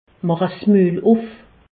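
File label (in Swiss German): "mul", Alsace, Haut Rhin; medecine; ouvrez la bouche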